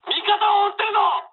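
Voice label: player friendly fire mood high, speech japanese; War Thunder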